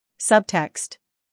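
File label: "humor", British; subtext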